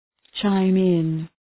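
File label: "chime in", English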